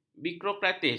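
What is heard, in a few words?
"birokratis", Malay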